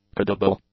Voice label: "corrodible", English; adjectives